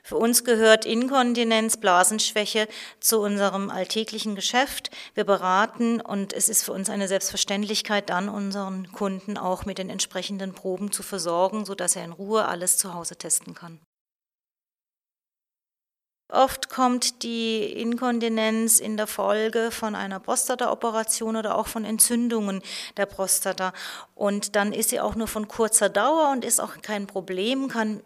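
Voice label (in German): O-Töne; Blasenschwaeche bei Maennern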